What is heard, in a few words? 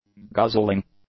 "guzzling", English; nouns